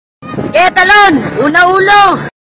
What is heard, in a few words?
filipino meme sound effects; ge talon una ulo; pinoy